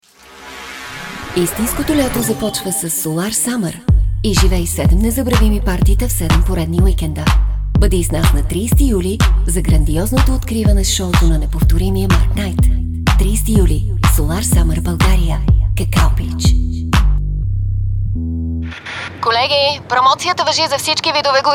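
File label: female Bulgarian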